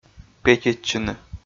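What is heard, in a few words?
"петитчыны", Komi, male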